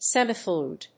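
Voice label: "semifluid", English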